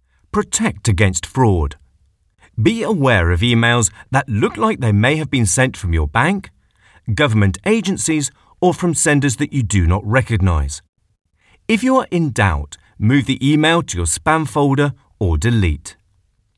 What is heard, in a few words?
system announcements